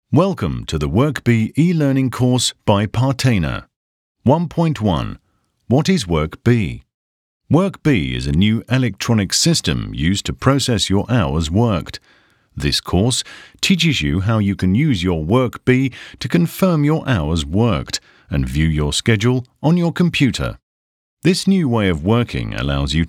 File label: e-learning; Partena